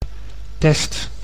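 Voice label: "test", Dutch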